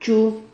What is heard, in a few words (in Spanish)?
"chu", Silabas